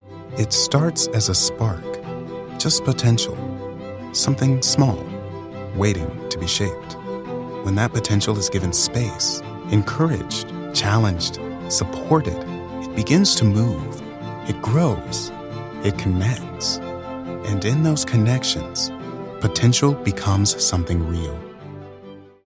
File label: Inspirational Corporate Sizzle American Sped up